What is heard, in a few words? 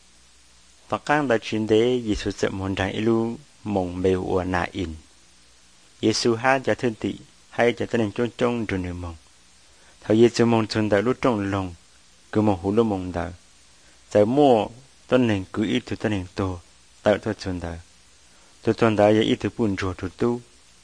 portrait of jesus hmong blue